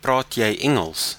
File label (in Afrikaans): do you speak english, afrikaans; Praat jy Engels?; (sg/inf)